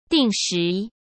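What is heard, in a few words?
"定时", Mandarin Chinese; hsk; chinese-flashcards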